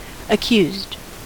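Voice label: "accused", US English; pronunciation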